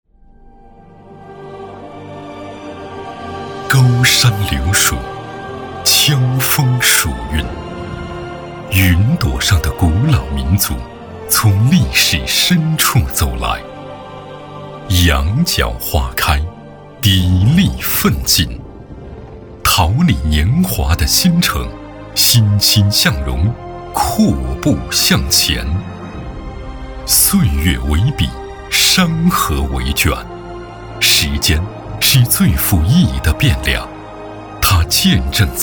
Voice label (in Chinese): 男 专题 形象 片 大气厚重; 北川20周年